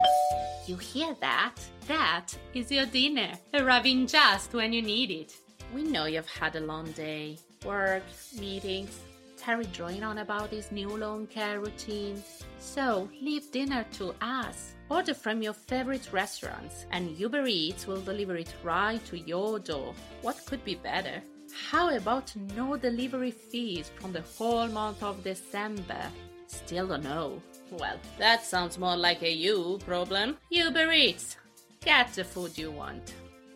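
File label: IT AccEN, Italian accented English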